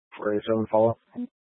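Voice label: Incident Tac 3, Scanner audio recording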